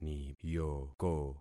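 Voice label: "niyoko", concatenated syllables; Affixing experiment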